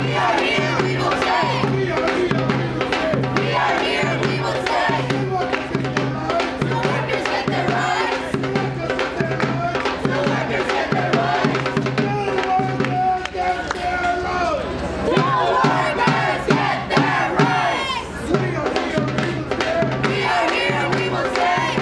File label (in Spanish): esloganes